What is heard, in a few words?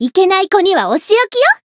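Voice variable chant, Japanese; channon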